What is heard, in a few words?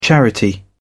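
"charity", British English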